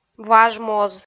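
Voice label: "важ моз", Komi-Zyrian, female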